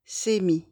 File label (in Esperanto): "semi", Esperanto; vortoj